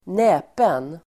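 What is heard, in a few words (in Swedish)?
"näpen", Swedish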